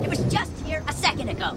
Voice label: grunt; h3dialogue; staten